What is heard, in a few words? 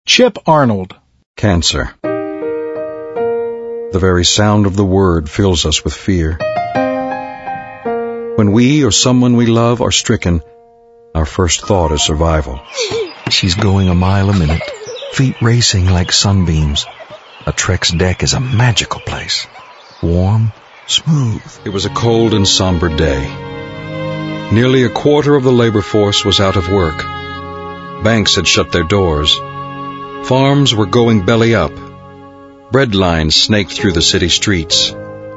male; Spotland Productions